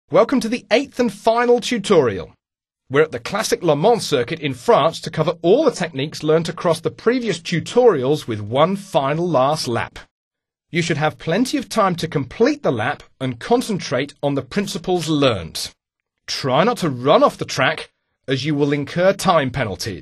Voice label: single lap english, speech; MotoGP 3

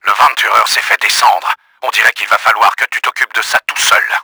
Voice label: CARRIER DIES, french; Battle Engine Aquila; XBOX

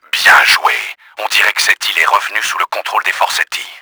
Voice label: LEVEL COMPLETE, french; Battle Engine Aquila; XBOX

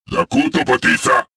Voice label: suspicious; XBOX

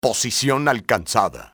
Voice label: pos reached, spanish soldier; XBOX

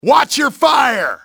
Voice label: watch your fire, english male; XBOX; enemy

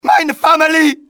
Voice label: my family, male; XBOX

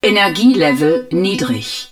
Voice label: ENERGY LOW, german

